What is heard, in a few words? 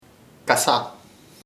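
ant hormiga, Chamacoco word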